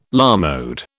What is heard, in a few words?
"à la mode", British